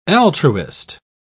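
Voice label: "altruist", British English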